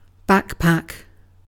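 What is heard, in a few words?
"backpack", British English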